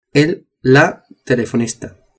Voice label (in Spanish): "telefonista", Spanish